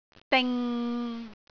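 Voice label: "ding6", Cantonese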